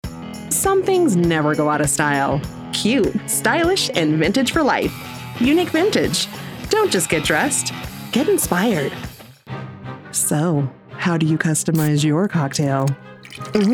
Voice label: Commercial